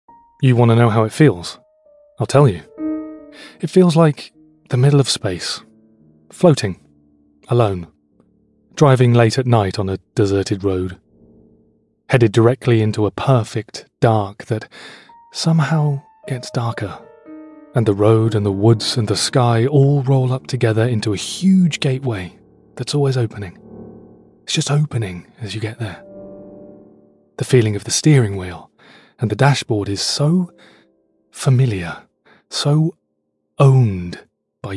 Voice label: english british narration